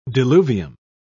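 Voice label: "diluvium", British English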